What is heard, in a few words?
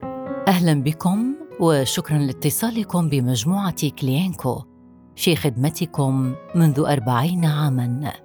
Thank You Message IVR Arabic